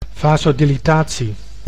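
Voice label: "vasodilitatie", Dutch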